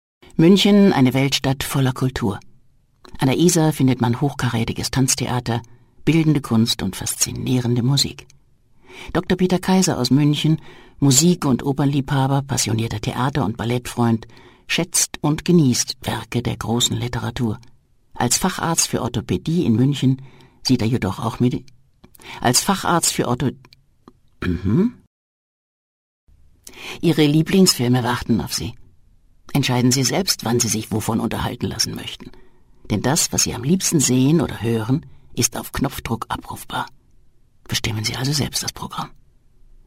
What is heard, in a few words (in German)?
kommentar